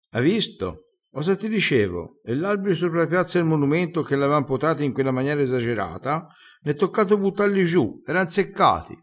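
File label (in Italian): "ell", vocabolario dialettale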